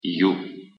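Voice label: "ю", Russian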